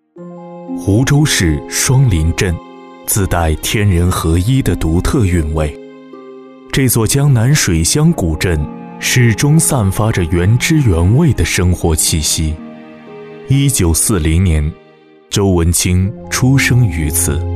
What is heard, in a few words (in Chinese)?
男 人物专题 舒缓感人